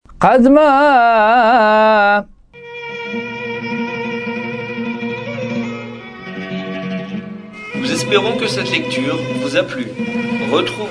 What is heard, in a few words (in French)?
apprentissage taamim selon le rite constantinois